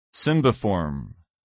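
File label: "cymbiform", English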